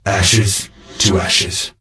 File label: Death Knight Male Low Farewell